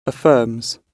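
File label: "affirms", British English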